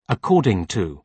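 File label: "according to", British English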